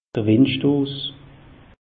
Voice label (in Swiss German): "wind stoos", alsace; meteo